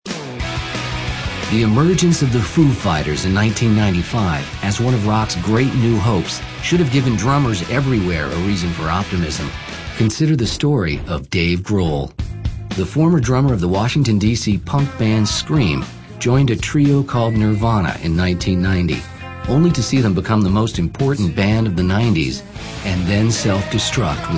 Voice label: male narration